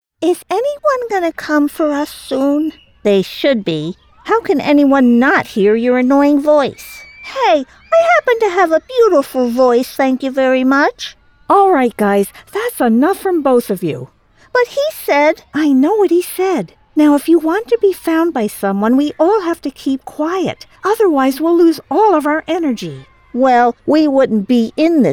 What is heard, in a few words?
Child Voices With Background